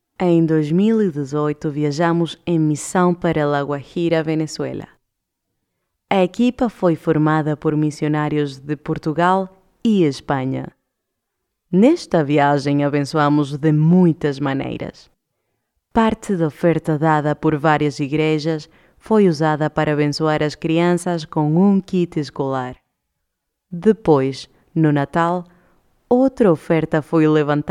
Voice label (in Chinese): 葡萄牙语女 外语; 小语种